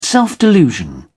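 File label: "self delusion", British English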